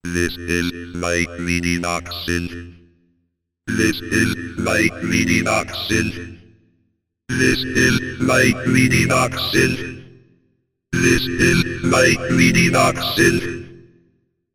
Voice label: speakjet test; midibox